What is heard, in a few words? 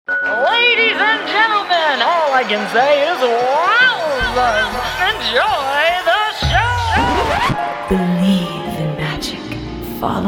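Character Female; TaDa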